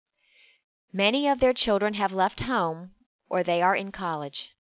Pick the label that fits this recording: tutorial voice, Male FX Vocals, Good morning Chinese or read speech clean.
read speech clean